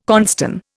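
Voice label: "cornstone", Indian English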